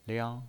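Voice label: "liang", pinyin, Mandarin Chinese, first tone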